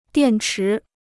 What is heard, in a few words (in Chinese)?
"电池", Chinese